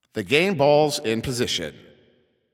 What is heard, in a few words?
Ball Placed in Start Ring, Announcer